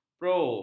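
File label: "pro", Malay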